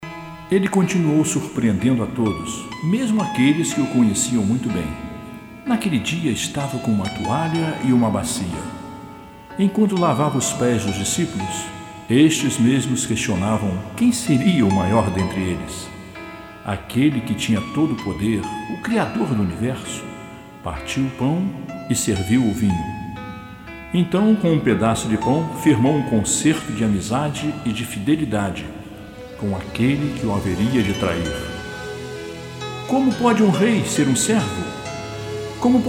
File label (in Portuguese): Narração; Jesus Tudo Pagou